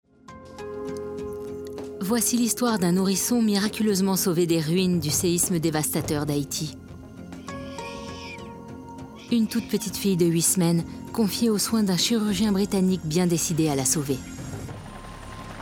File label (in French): Narration